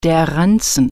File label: "backpack", german